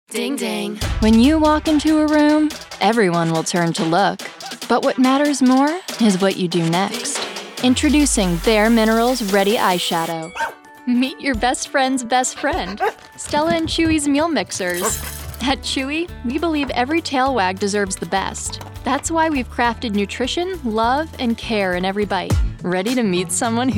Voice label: COMMERCIAL